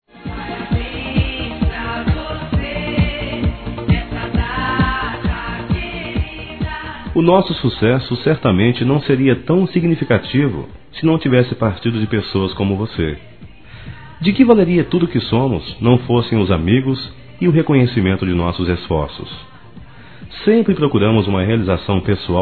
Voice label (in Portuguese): Aniversário Cliente Masculino, Telemensagens; Telemensagens 2017